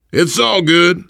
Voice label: you are welcome, voice, biker

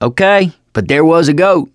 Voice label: stories, survivor voice; ellis; Left 4 Dead 2; mechanic

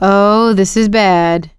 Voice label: reaction negative, survivor voice; producer

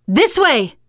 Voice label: follow me, teengirl, voice; player; survivor

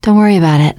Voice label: you are welcome, teengirl; player; survivor; voice

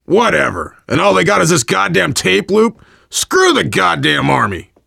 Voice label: world farmhouse, voice, biker

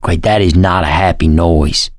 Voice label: heard special, survivor voice; Left 4 Dead 2; mechanic

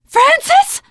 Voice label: grief biker, teengirl, voice; player; survivor

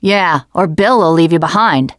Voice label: teen girl

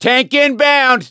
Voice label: heard hulk, voice; gambler

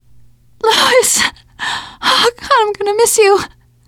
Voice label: grief manager, teengirl; player; survivor; voice

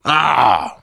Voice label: reaction negative, voice, biker; Left 4 Dead 2; survivor

